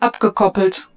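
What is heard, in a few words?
German - Disengaged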